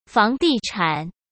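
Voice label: "房地产", Mandarin Chinese; chinese-flashcards; hsk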